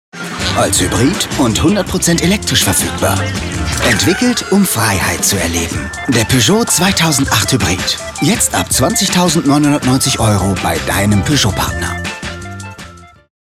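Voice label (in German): Werbung, professioneller Sprecher; Peugeot Hybrid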